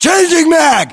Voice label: inform reloading generic, US

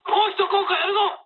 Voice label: bomb failure mood high, speech jp; War Thunder

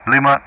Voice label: "Lima", ENG; Ka-50; Alphabet; DCS

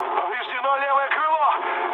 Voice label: left wing, mood med, speech ru; War Thunder

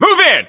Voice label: move in, US voiceovers; english

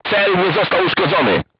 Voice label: voice message armor not pierce, crew tanks polish

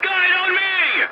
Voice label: voice message follow me, english; War Thunder

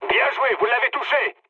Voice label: bomb success mood med, speech fr; War Thunder

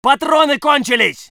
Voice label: voice sov infantry

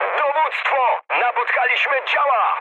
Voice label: damaged aa mood high, speech pol; War Thunder

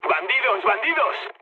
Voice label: enemy detected mood low; War Thunder

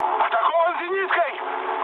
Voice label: damaged aa, mood med, speech ru; War Thunder

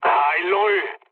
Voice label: aircraft destroyed mood low, speech fr; War Thunder

